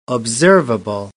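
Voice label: "observable", British English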